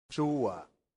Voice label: "sua", latin; santillana

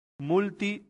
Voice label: "multi", latin; santillana

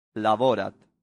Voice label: "laborat", latin; santillana

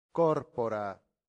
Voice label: "corpora", Latin; santillana

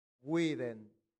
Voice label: "vident", latin; santillana

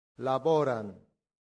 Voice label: "laborant", latin; santillana

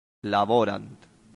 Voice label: "laborant", latin; santillana

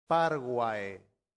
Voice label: "parvae", Latin; santillana

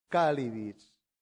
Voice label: "calidis", Latin; santillana

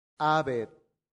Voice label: "habet", latin; santillana

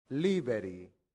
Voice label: "liberi", latin; santillana

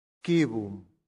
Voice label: "cibum", latin; santillana

